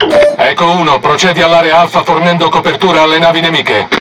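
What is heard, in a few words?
It Speech Tutorial Task, Italian; World Of Warships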